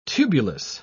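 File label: "tubulous", British English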